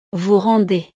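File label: "vous rendez", French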